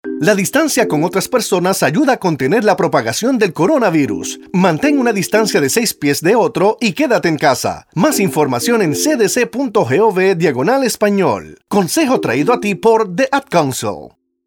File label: Spanish; Coronavirus PSA; Six Feet